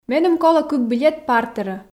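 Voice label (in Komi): меным колӧ кык билет партерӧ, Komi, female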